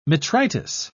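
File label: "metritis", English, British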